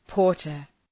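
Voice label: "porter", English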